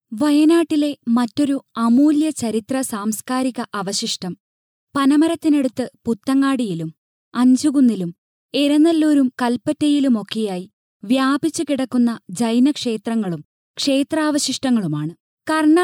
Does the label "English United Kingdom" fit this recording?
No